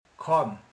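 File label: "kanna", Hungarian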